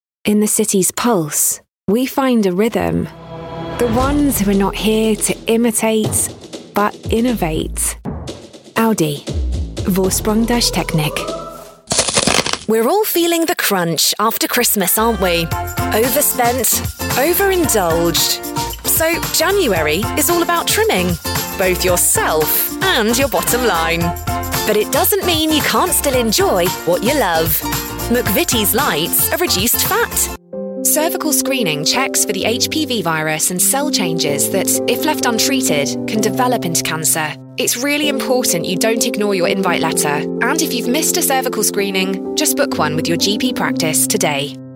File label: commercials